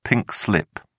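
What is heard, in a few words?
"pink slip", British English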